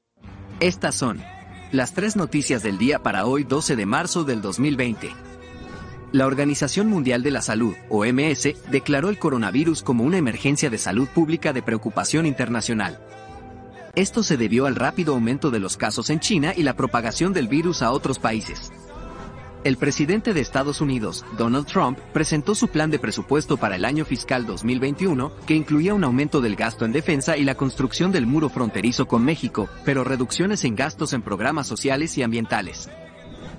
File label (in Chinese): 西班牙男声